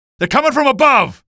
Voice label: alert soldier above, male; male01; hl2 ep1; episode 1, npc